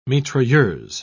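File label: "mitrailleuse", British English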